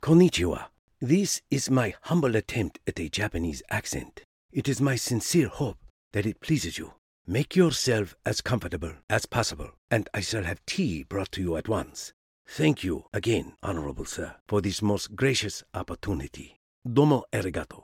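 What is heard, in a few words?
Japanese, Accents & Dialects; Voice, Acting & Singing samples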